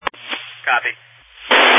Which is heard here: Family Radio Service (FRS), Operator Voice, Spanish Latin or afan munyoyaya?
Family Radio Service (FRS)